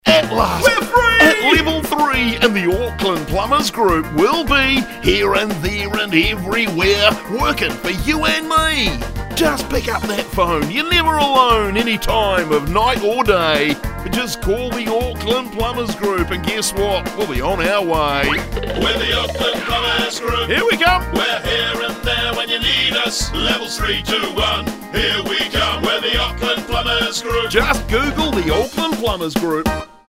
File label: Radio advert; Level 3 at last